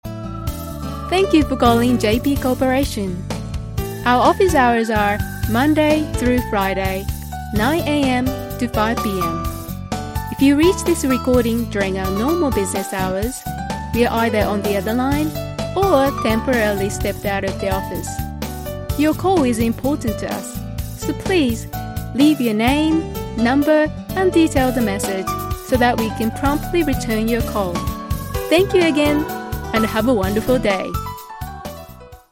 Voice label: Japanese Telephone English

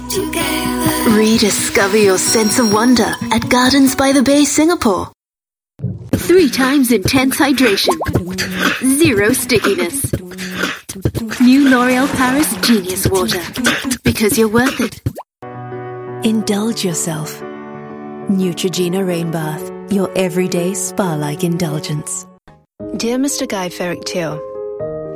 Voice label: Asian English TVC

